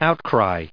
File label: "outcry", us